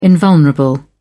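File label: "invulnerable", British English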